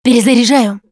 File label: Reloading Quiet, russian; Producer